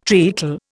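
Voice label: "treacle", Indian English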